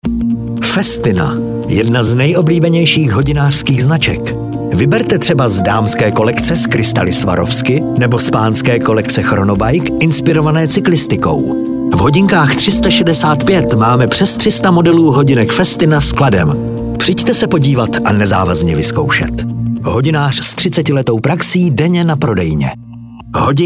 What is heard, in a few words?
Radio spot; HODINKY 365